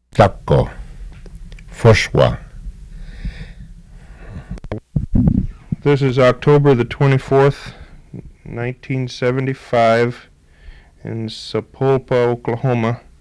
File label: Mukogee Creak